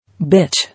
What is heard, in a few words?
"Bitch", English